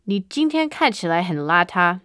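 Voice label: you look bad, phrases, chinese; Aristosoft, Inc.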